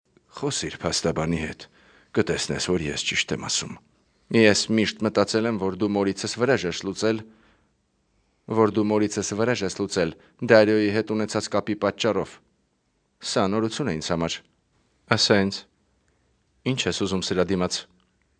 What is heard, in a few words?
armenian voiceover locutor armenia